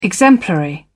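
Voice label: "exemplary", British English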